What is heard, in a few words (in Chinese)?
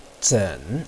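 "zen", Chinese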